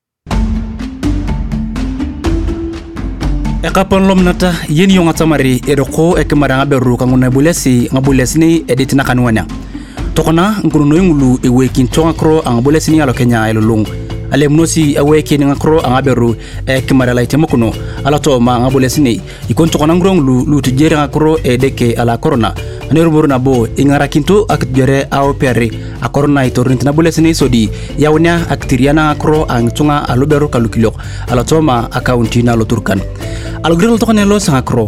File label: TURKANA RADIO SPOT